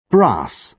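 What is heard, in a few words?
"brass", British English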